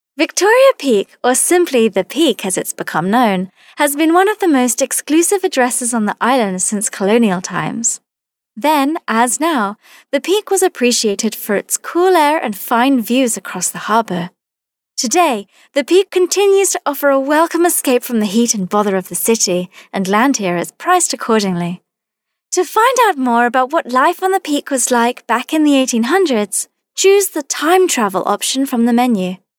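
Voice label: British female corporate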